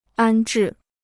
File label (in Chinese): "安置", Chinese; 安置 (ān zhì); to help settle down